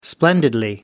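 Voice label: "splendidly", British English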